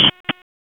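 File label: FIRE Operations, p25; wake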